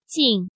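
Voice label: "Jìng", Chinese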